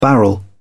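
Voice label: "barrel", British English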